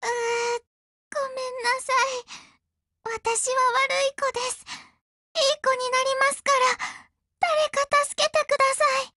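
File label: Japanese, Voice; BREAK; GF Alarm; RPK203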